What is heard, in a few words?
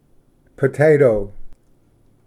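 "potato", English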